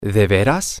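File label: really, Spanish, male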